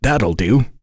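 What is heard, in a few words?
Vox Happy, voices heroes English; kingsraid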